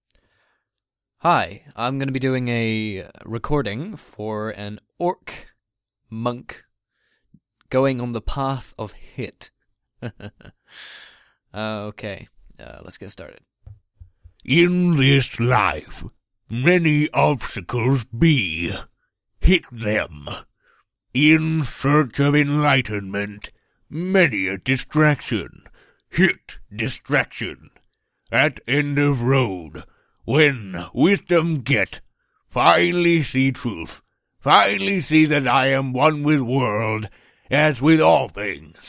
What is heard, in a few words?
orc male audition